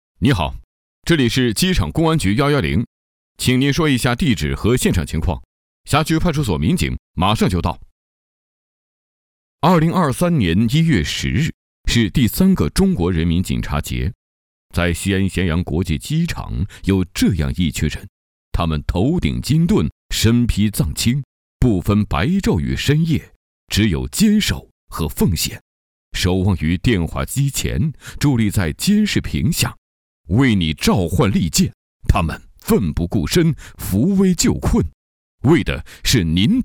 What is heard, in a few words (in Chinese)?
男 语音 机场公安局